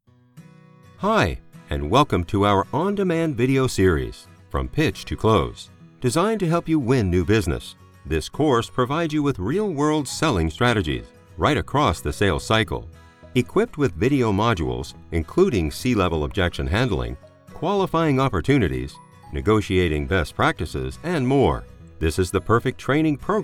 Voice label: eLearning

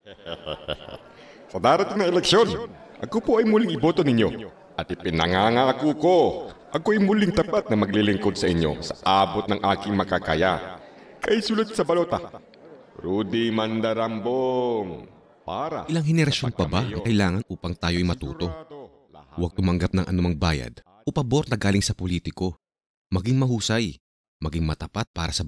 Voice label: Educational ad

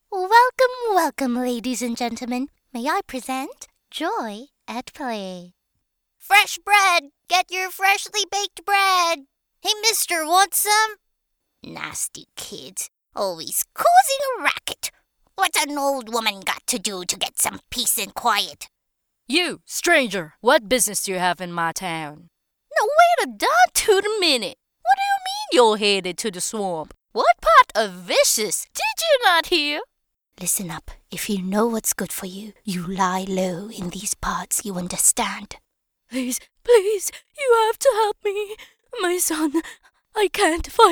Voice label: Character English

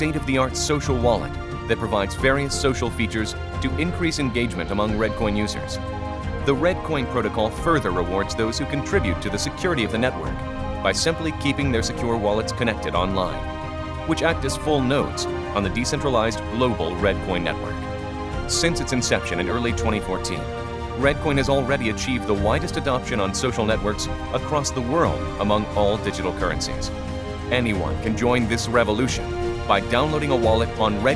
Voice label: voiceover with music

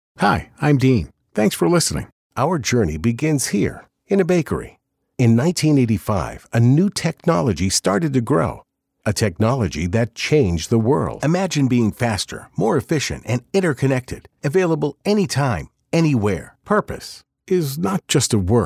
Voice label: Corporate Dry Voice

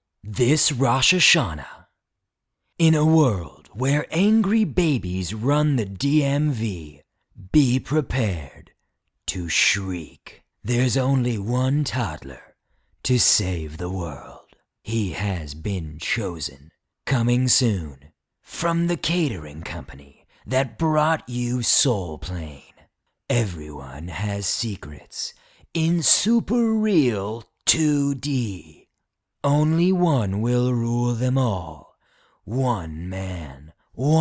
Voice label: Trailer Parody

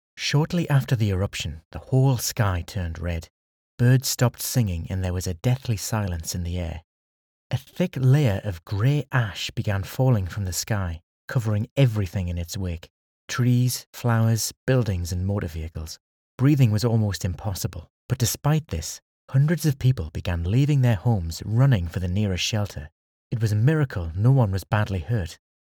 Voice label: Audio Book

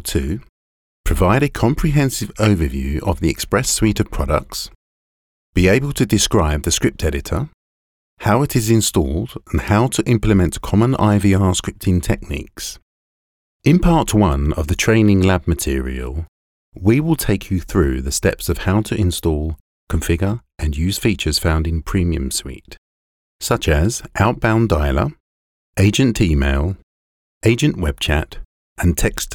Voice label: E-learning

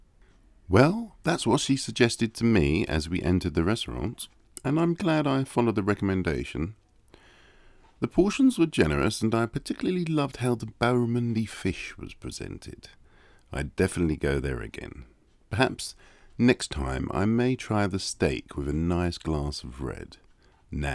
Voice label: Natural speak

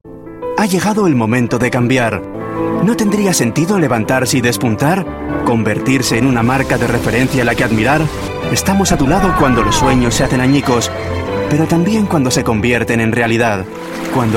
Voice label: corporate Narration

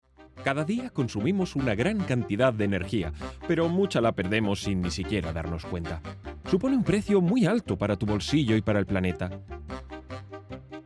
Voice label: Commercial Video

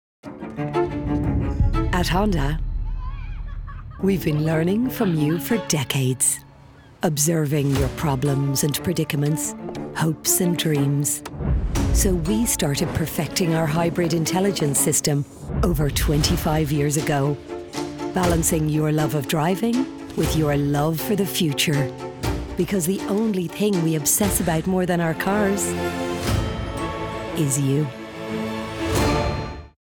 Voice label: Irish female voiceover, Television Spots; Honda Tv Commercial; 30 Sec; Honda Family

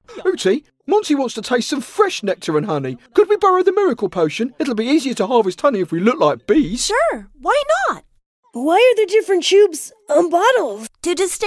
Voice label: Cartoon

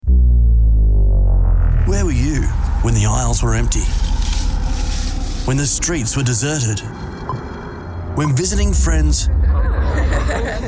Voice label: Audio Narration, Male Narrator

